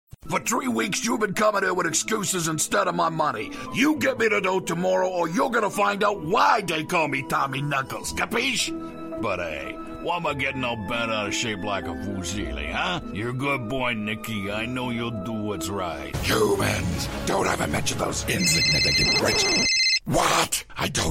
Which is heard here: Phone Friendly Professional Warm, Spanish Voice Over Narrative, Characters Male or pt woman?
Characters Male